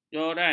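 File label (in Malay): "joran", Malay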